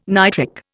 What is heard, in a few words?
"nitric", Indian